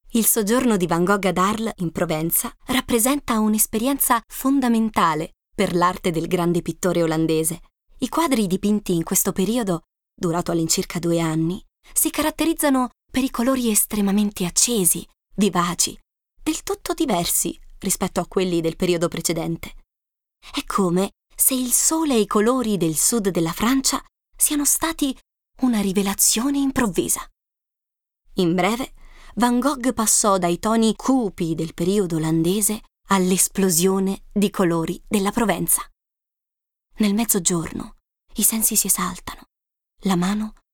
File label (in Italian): Documentario, ITALIAN FEMALE; documentary